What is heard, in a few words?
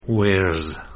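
"Wears", English; pronunciation of plural s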